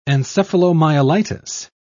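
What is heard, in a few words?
"encephalomyelitis", English, British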